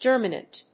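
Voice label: "germinant", English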